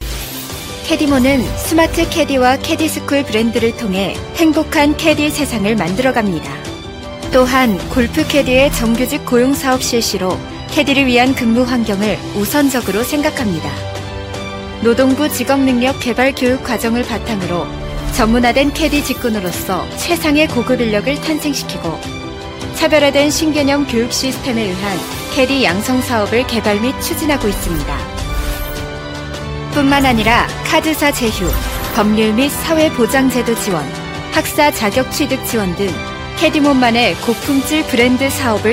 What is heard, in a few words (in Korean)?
내레이션 샘플 지적인